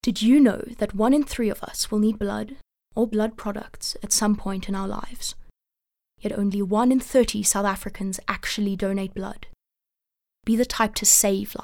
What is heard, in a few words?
English Soft Sell